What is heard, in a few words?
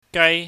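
"gaai", Cantonese, high falling tone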